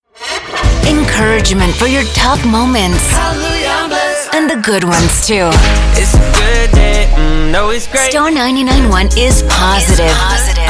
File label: Christian ENGLISH